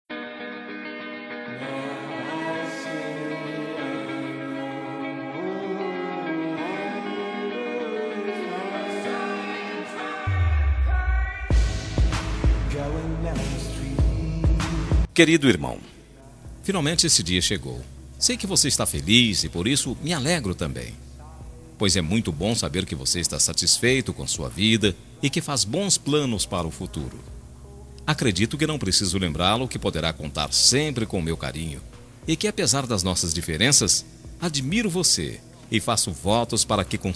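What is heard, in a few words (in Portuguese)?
aniversario irmao masculino, telemensagem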